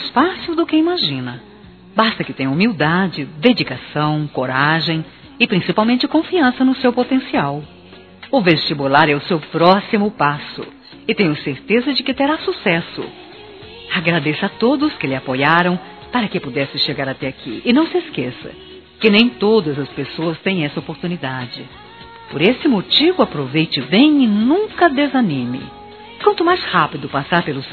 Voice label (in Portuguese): Formatura 1º Grau Feminino; Telemensagens; Formaturas; Telemensagens 2017